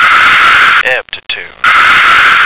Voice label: "aptitude", American